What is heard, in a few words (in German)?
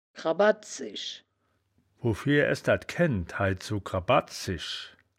"gra badtzisch", wittlich; das wittlicher woerterbuch; woerterbuch vertonung